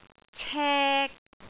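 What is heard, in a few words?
"cek3", Cantonese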